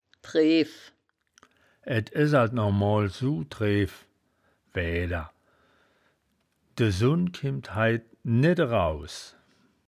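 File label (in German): "dreew", wittlicher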